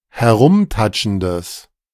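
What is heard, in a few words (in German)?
"herumtatschendes", German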